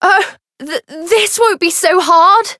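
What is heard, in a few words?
Voice encounter fear; tiramisu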